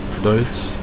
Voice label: "german", Afrikaans